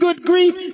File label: "good grief", g-rated swears; Voice; expletives